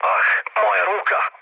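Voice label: Serbian damaged pilot mood low, speech; War Thunder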